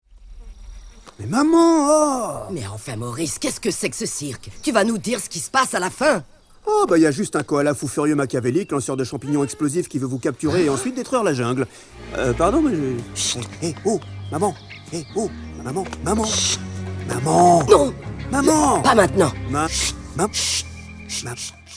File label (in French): DOUBLAGE DESSIN ANIMÉ; LES AS DE LA JUNGLE